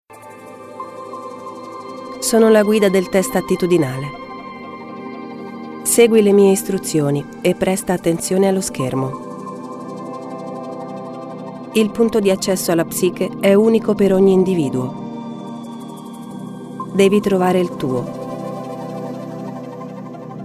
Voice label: TUTORIAL, ITALIAN, FEMALE